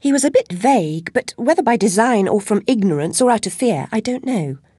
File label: chitchat; svencoop; Alice